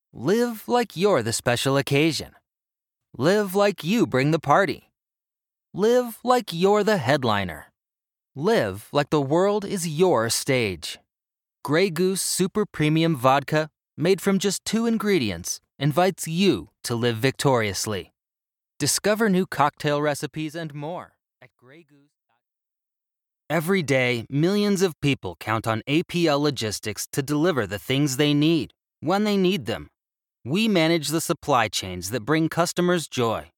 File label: Commercial Reel